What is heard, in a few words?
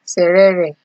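"serere", Nigerian Pidgin, female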